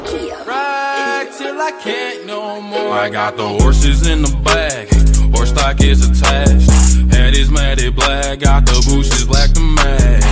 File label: english female voice ringtone